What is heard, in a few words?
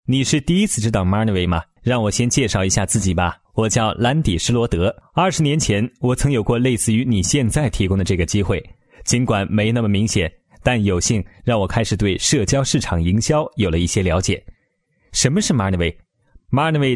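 Chinese Mandarin, male, corporate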